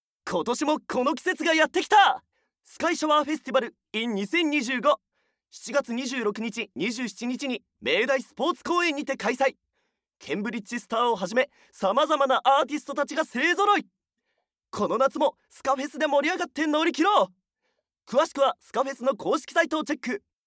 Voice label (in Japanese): ナレーション